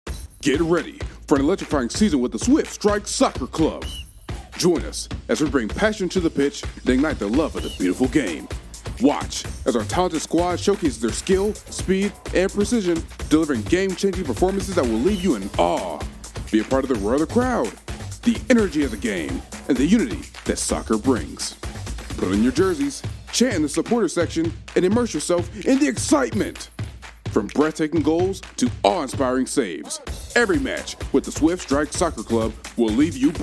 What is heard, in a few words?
Sports Announcer